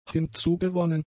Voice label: "hinzugewonnen", German; adjectives